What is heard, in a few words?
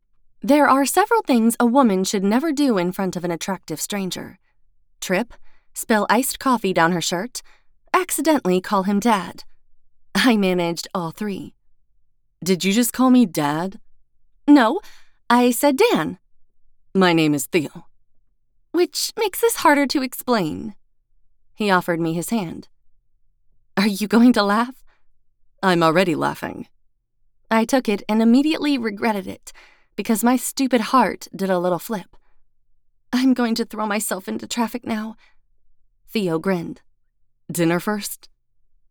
Romantic Comedy MF 1st Person POV